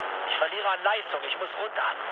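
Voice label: German damaged engine mood low, speech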